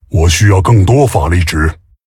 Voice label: Male Tauren, zh; ERROR NEED MANA

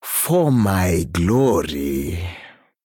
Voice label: Male Troll Thinking; en

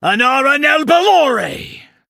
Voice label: Male Blood Elf TRIGGER Scorch; en

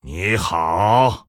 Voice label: GREETINGS, zh

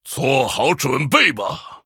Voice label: Male Human Greetings; zh

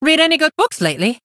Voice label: Blood Elf Mirror Greetings; HERO

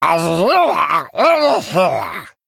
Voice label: Male Murloc Mirror Start; zh

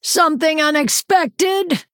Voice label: Female Night Elf Oops

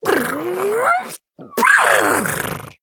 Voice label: Female Murloc Death; en

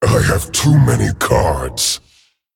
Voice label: Male Dragon, ERROR HAND FULL; Hand already full; en; 风暴巨龙迦拉克隆（英雄）

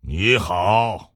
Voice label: Greetings, zh

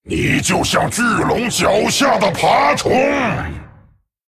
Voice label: Male Dragon Threaten; zh

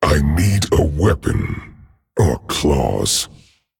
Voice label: Male Dragon, ERROR NEED WEAPON; I need a weapon. Or claws.; en; 风暴巨龙迦拉克隆（英雄）

